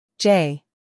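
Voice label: "j", American English; sounds-of-american-english; everyone-can-use-english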